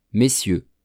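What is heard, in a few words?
"messieurs", French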